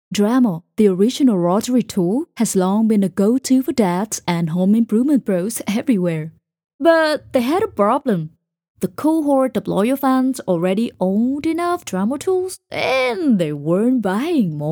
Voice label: versatile expressions soft straight comedic sarcastic